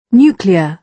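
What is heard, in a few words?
"nuclear", British English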